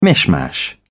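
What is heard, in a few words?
"mishmash", British English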